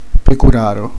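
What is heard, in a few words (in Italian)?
"pastore", Italian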